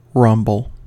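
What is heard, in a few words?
"rumble", American English; pronunciations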